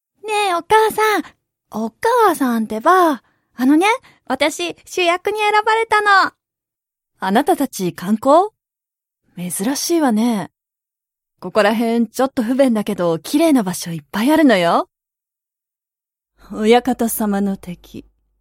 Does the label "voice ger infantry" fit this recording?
No